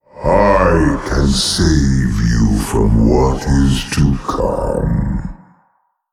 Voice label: Male Old God Picked